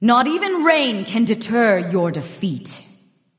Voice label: taunt directed; chaac; smite; nike